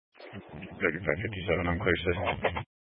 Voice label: Police Department Dispatch; Grand Junction